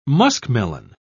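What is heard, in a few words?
"muskmelon", British English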